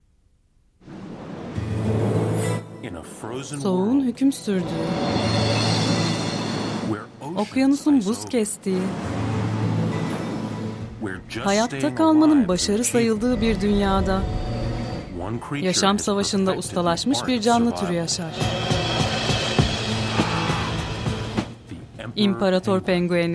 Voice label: Turkish Documentary, Female